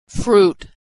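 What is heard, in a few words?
"fruit", American English; vowel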